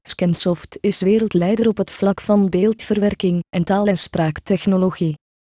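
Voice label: Dutch Belgian, Sprachproben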